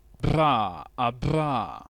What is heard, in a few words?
bilabial trill